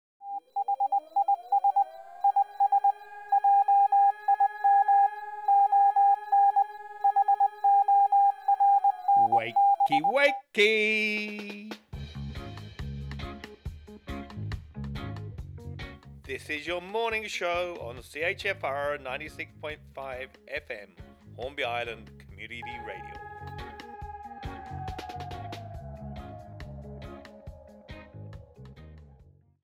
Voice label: Radio Call